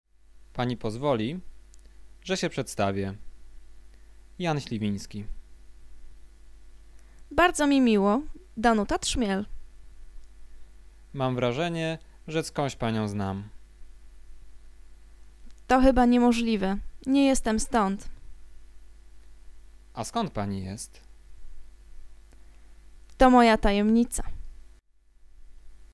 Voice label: conversation; lektorek; firstyear